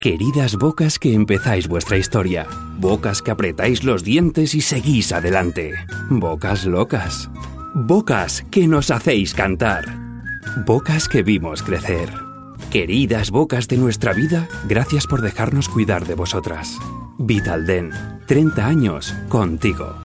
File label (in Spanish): Publicidad, Calido y Amable; Vitaldent